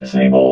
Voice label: "disable", robot vox